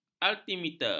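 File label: "altimeter", Malay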